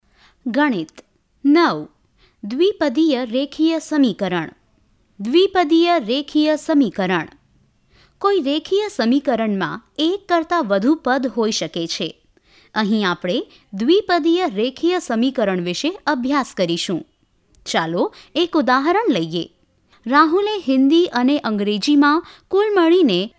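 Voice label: Gujarati F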